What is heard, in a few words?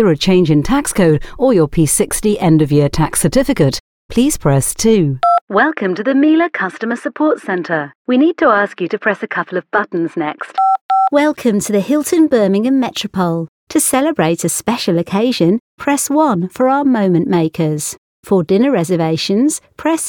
ON HOLD IVR TELEPHONY